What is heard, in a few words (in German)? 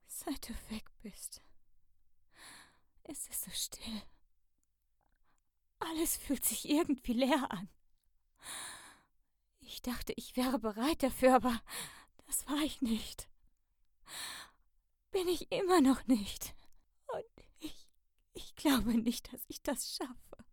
traurige Person